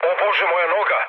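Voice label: Serbian damaged pilot mood low, speech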